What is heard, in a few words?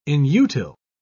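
"inutile", English, uk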